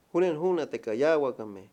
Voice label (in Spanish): "incendio", Mayo